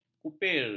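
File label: "kupir", Malay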